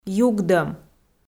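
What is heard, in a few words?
"югдӧм", Komi, female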